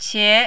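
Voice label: "qie", Mandarin, second tone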